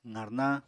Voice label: "qarnañ", Apatani

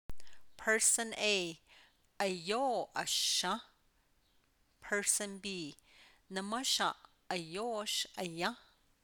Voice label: I eat a lot What about your mom Does she, Navajo

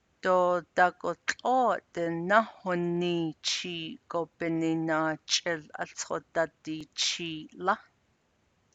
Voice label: navajo; Because of the intense heat outside the plants; Iterative (R)

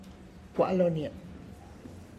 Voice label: I went to the fields, Tlacochahuaya Zapotec; (Spanish) Fuí al campo; gua' lonia

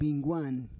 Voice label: "bingguan", Roon

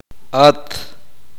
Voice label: uspanteko; t vos usted singular

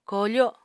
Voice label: "koofo", apatani

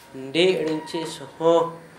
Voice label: "nɖeʔ riŋtʃe sǝhɔ", Gta, Dialect: Hill; fire & water

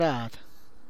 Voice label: "plow", tlacochahuaya

